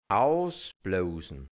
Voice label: "ausblousn", hianzisch Mundart, Burgenland; ausblasen

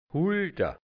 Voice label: "Hulda", Hianzisch Mundart; m Arbeitsloser

